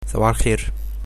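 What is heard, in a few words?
good morning, Egyptian Arabic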